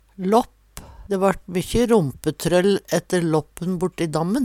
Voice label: lapp, Numedalsmål

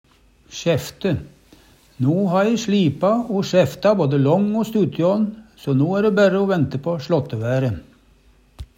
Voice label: sjæfte, Numedalsmål; Eksempel på bruk No ha e slipa o sjæfta både lång- o stuttjå'n, so no æ ræ berre o vente på slåttevære.

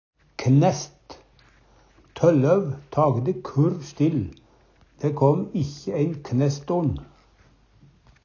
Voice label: knæst, Numedalsmål; Substantiv hankjønn; Tølløv tagde kurr stille. Dæ kåm ikkje æin knæst or'n.

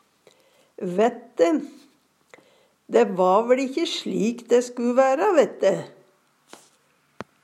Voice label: vaette, Numedalsmål; veit eg; Dæ va veL ikkje slik dæ sku væra, vett'e.; Uttrykk

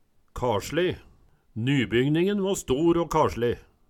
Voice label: karsli, Numedalsmål

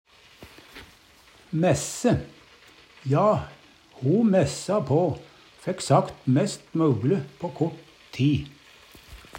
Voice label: mæsse, Numedalsmål; Verb

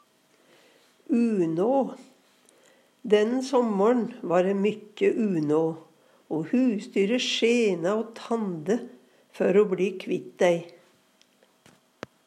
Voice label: unå, Numedalsmål; utøy

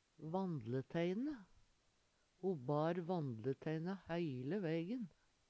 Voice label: "vandleteine", Numedalsmål; Ho bar vandleteina heile veigen.

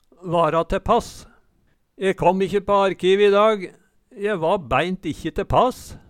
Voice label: vara tepass, Numedalsmål; Je kåm ikkje på arkive i dag, je va bæint ikkje tepass

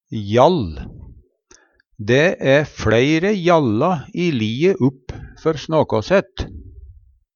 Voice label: "jall", Numedalsmål; hjall

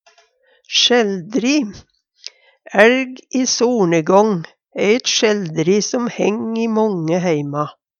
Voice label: "sjeldri", Numedalsmål; "Elg i solnedgang" æ eit sjeldri som hæng i månge heima.

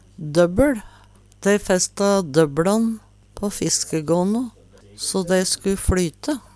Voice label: "døbbL", Numedalsmål

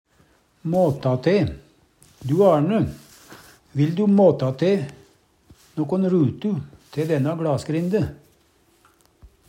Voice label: mata te, Numedalsmål; Uttrykk; måle ut/til

